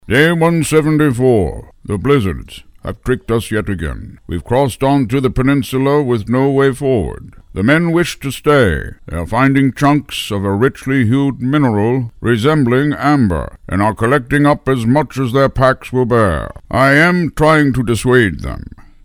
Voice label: Diary Keeper, Tragic, British; animation